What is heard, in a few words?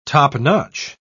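"topnotch", British English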